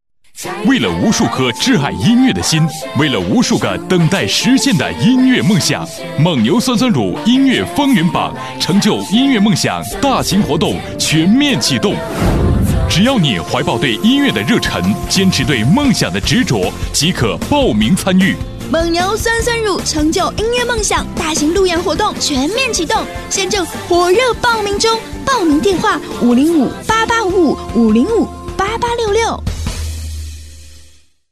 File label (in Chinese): 广告, 食品饮料, 欢快; 蒙牛成就梦想